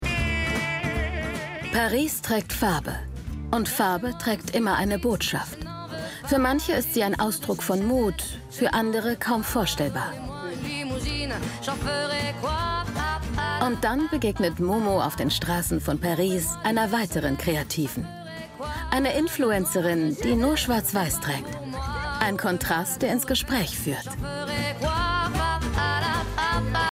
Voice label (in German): KOMMENTAR; Uniform-Macht-Mode-Uniform-des-Lebens; arte